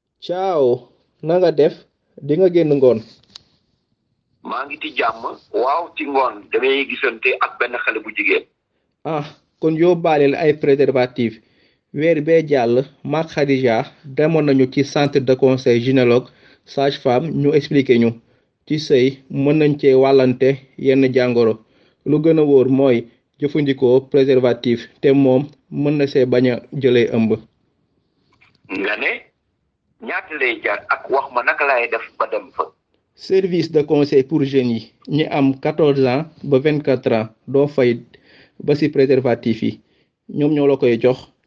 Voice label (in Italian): Wolof uomini